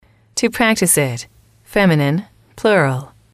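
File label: "to practice it", English, female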